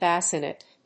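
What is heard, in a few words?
"bassinette", English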